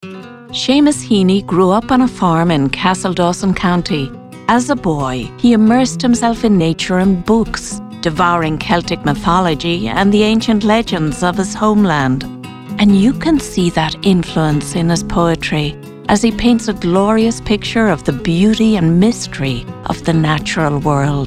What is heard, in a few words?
Narration